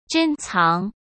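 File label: "珍藏", Mandarin Chinese; hsk; chinese-flashcards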